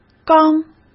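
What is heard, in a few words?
"缸", Mandarin Chinese; hsk; chinese-flashcards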